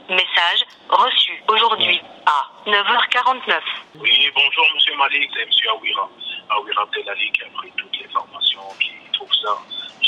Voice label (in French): Messagerie temoignage client